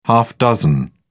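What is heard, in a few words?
"half dozen", British English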